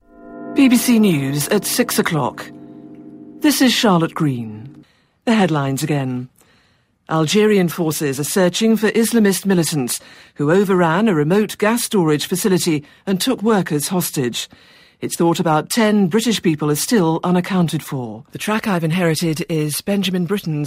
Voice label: VO Reel

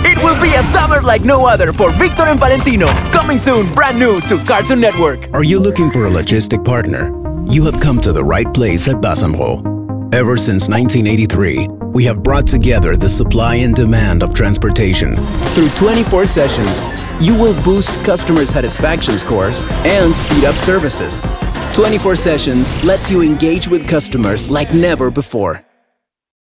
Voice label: English Commercial

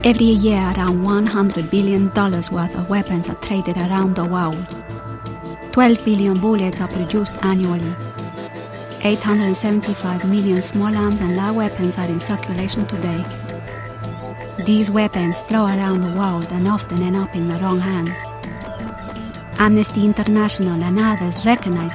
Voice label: Narration Latin American Accent

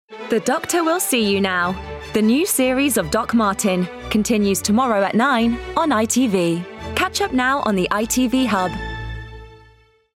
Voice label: promo

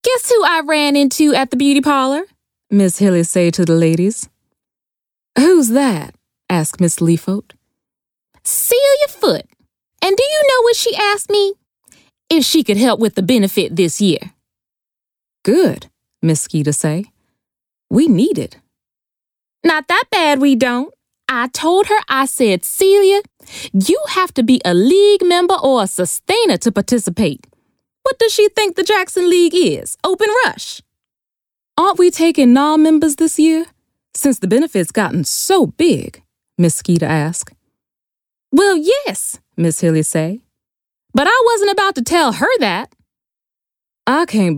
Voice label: Audiobook

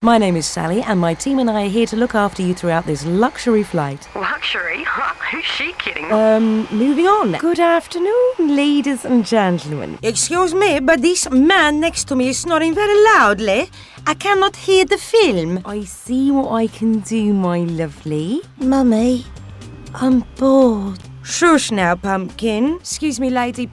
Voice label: Character

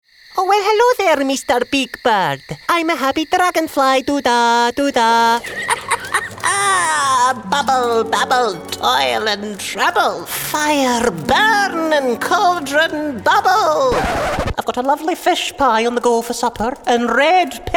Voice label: Animation

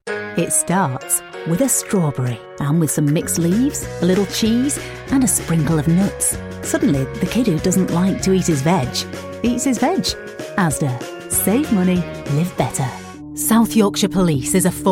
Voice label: Northern Accent

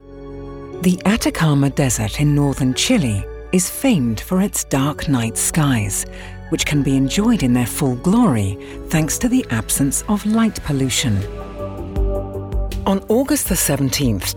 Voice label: Narration

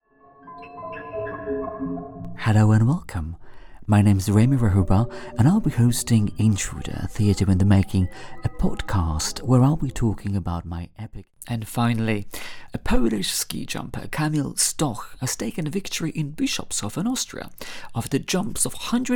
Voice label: English reel

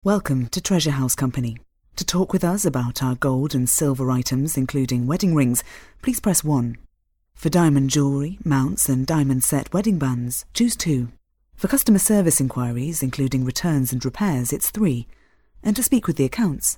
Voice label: IVR

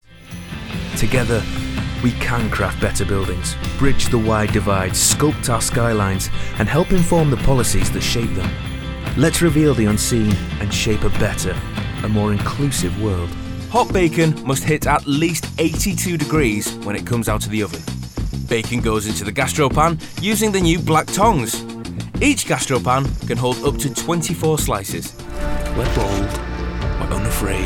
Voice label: Corporate; Showreel

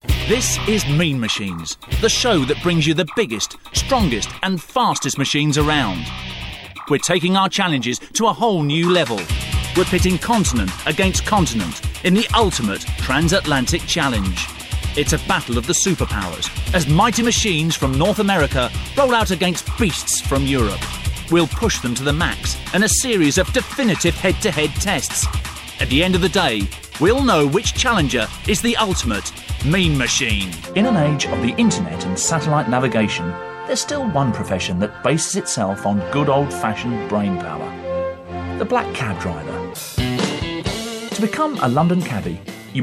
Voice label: Promo

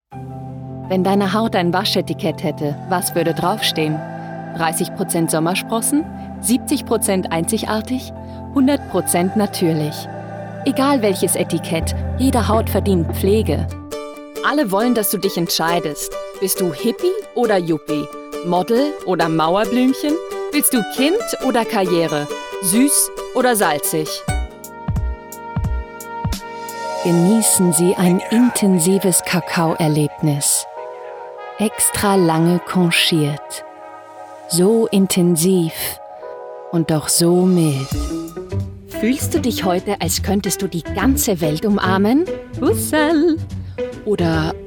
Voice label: German Austrian

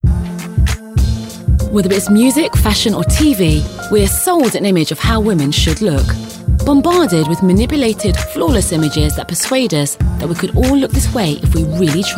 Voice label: Narration

